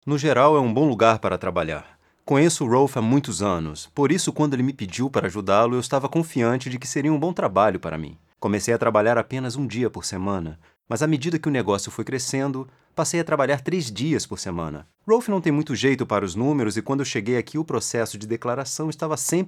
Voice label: brazilian m narration, portuguese bra voice; voice demos